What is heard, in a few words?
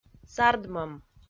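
"сардмӧм", Komi, female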